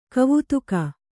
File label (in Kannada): "ಗವೇಧುಕೆ", Kannada